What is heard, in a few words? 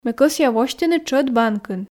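Komi sentences, female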